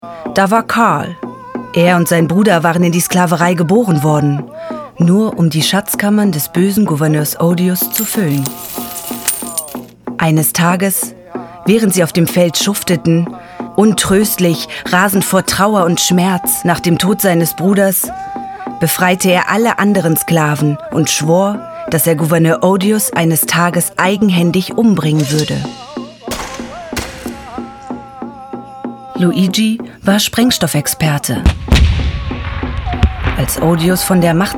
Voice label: hoerbuch

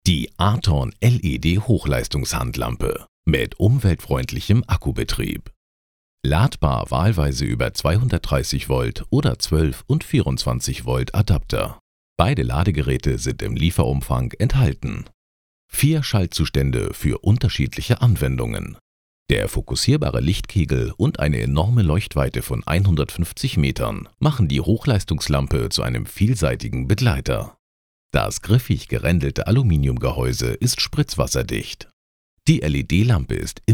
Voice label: produktfilm; Muttersprache Deutsch

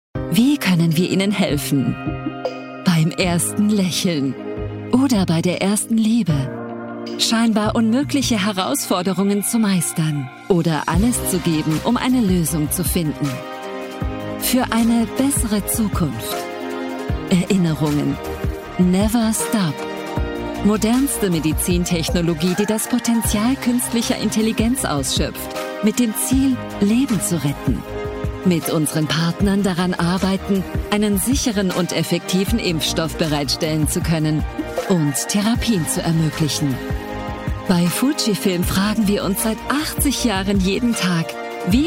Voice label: imagefilm empathisch warm; fujifilm

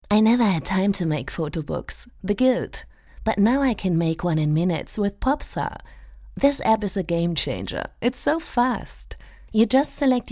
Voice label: englisch werbung fotobook, voice

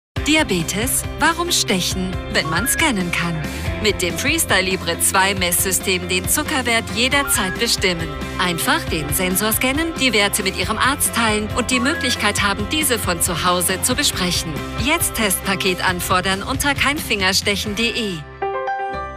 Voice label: tv spot national dynamisch vertauenswuerdig; abbott laboratories

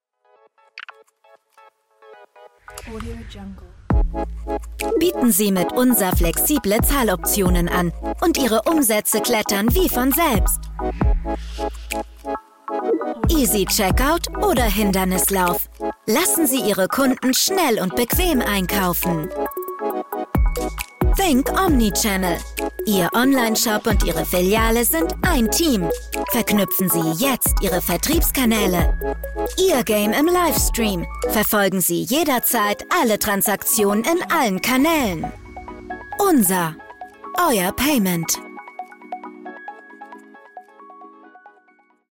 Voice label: deutsch; Sprecher in Köln; unzer

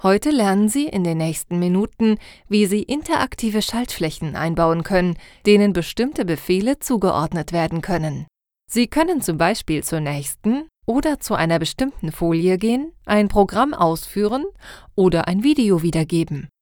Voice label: e-learning hoerprobe

